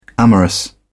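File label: "amorous", English, British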